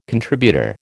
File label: "contributor", American